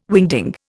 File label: "wingding", Indian